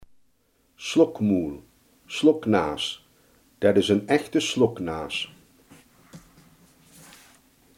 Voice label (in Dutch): "snoeper", Limburgs